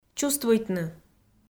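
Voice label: "чувствуйтны", Komi-Zyrian, female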